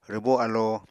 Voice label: "rwbó alo", Apatani